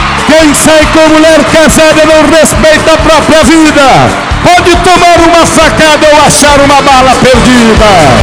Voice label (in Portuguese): Versos narrados, Rodeios; Esportes; bala perdida